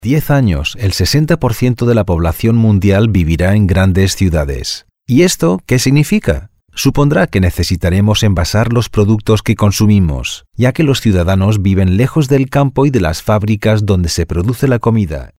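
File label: male Spain, Spanish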